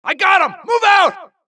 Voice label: supress, dialogue Allies; mohaa; Mission 2

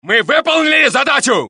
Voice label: Russian; mohaa; dialogue

